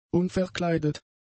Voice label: "unverkleidet", German; adjectives